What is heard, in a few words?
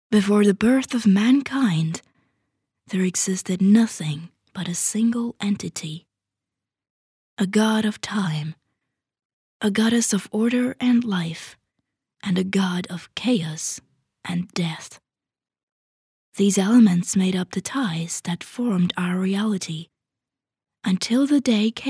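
Estonian, female; estonian voice over talent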